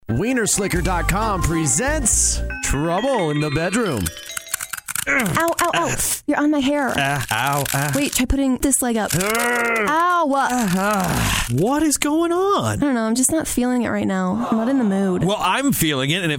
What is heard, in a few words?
radio commercial